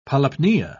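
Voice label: "polypnea", British English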